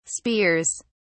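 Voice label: "spears", British English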